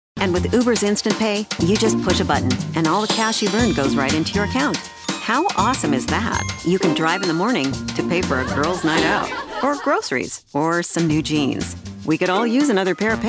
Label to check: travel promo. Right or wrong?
Wrong